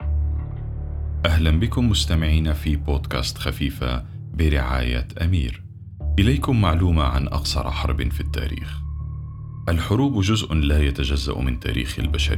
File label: male arabic commercial; podcat